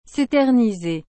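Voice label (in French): "seterniser", French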